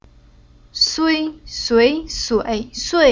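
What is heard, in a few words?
"Sui", chinese syllable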